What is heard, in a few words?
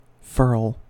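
"furl", American English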